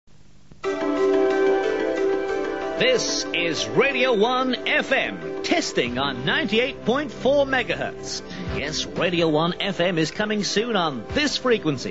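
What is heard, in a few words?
new fm transmitter trailer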